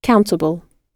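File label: "countable" British English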